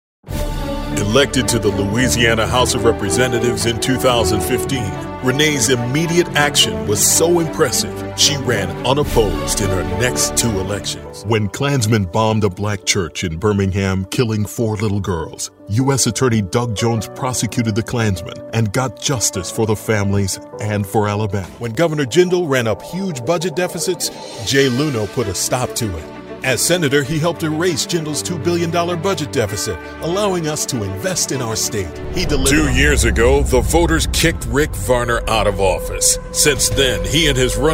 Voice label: english american political ads